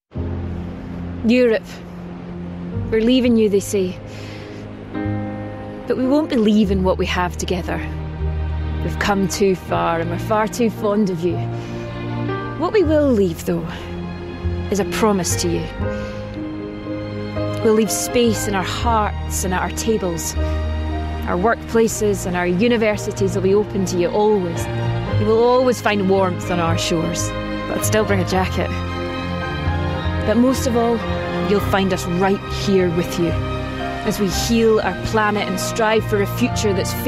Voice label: Commercial; Scotland Is Now